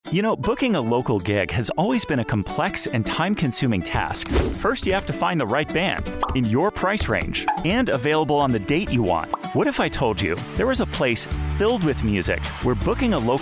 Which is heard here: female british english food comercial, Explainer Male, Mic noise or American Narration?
Explainer Male